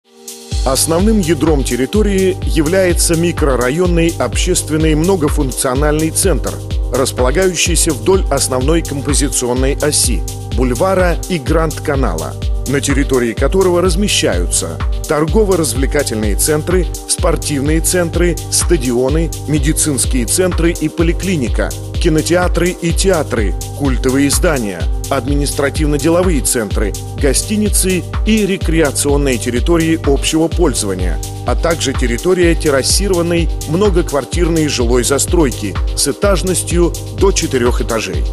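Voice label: Russian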